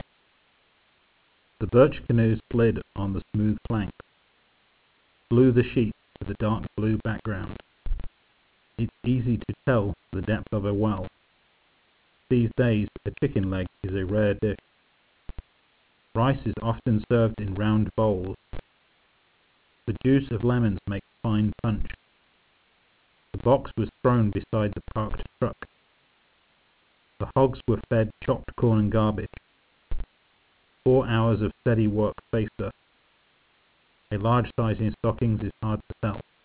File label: temporal clipping